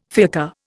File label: "fiacre", Indian